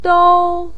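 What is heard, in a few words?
"dou" first tone, Mandarin Chinese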